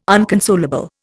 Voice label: "unconsolable", Indian